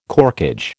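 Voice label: "corkage", American English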